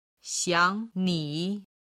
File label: "xiang ni", Chinese